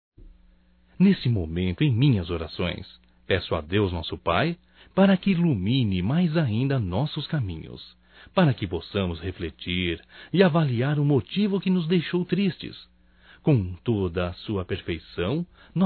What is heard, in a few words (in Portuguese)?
DESCULPAS IRMÃO EM CRISTO (M); masc; Telemensagens 2017